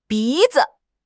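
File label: "bi2 zi5", Mandarin Chinese; HSK3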